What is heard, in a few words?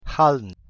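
"h2eln", Proto-Indo-European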